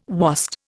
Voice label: "wast", Indian

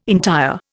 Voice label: "entire", Indian

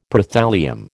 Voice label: "Prothallium", American

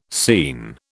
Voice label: "scene", British

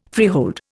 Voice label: "freehold", Indian

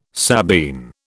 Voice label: "Sabine", British

